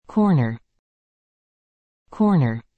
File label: "corner", English